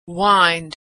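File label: "wind", American English; verb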